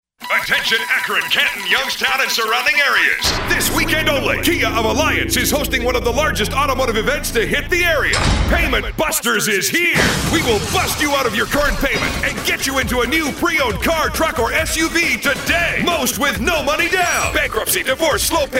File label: Hard Sell